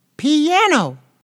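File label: English piano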